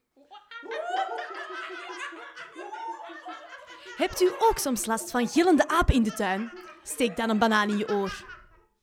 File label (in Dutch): Reklame